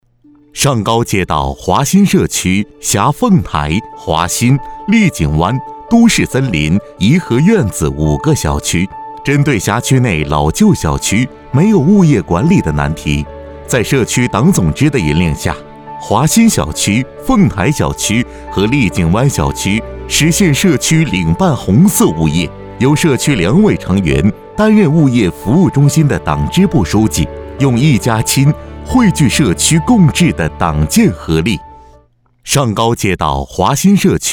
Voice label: 男; 红色家园社区

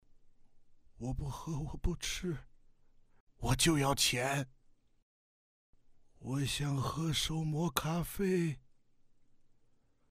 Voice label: 角色 模仿; 苏大强

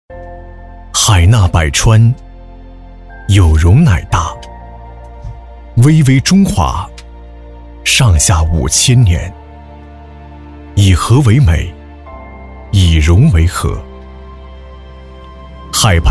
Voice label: 男 纪录片 大气磁性; 国家地理

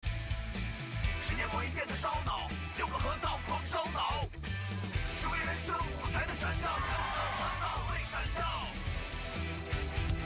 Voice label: 男 又嗨又快; 六个核桃

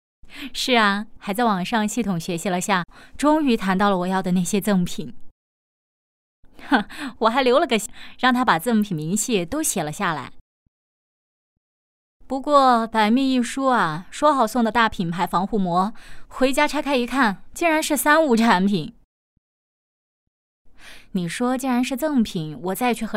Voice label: 角色对话

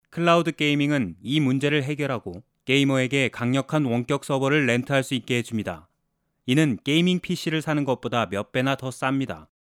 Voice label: 外籍韩语男-叙述

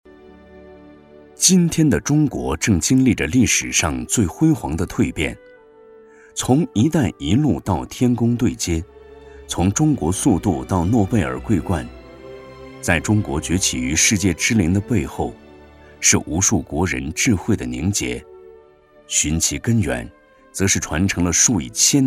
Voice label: 男-纪录片-自然讲述; 了不起的学霸

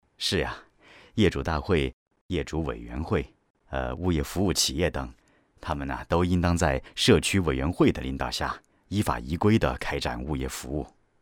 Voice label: 男, 角色, 物业员工青年角色

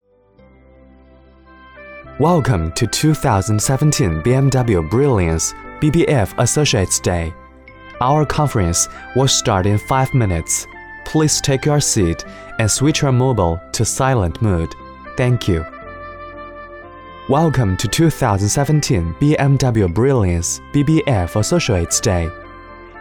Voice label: 男, 英文, 广播类; BMW现场广播

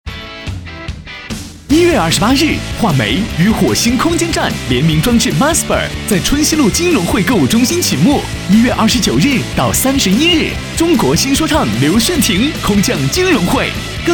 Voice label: 男 广告 年纪活力; Marsper

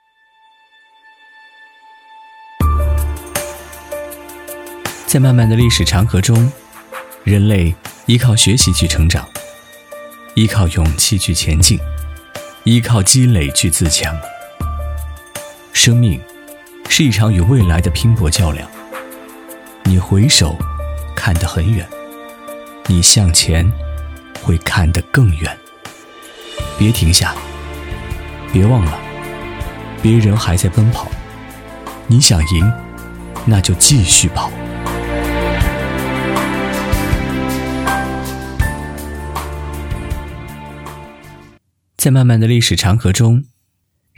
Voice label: 男,旁白独白 为自己代言 旁白

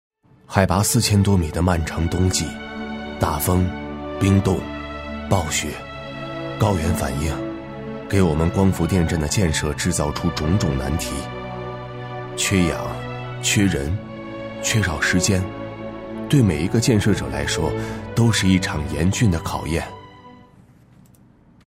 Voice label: 男 专题 宣传片

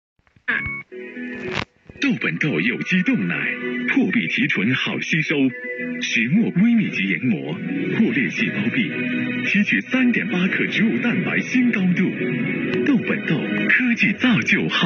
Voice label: 男 广告 食品类; 豆本豆

